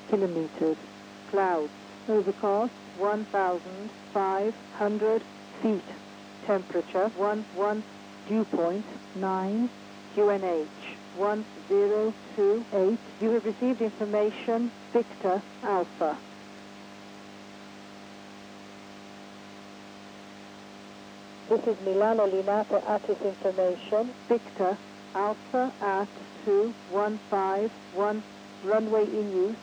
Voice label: AM Volmet; radioascolto